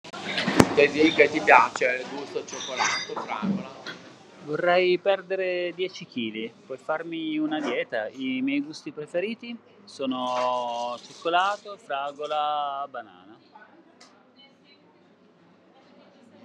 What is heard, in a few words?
voice message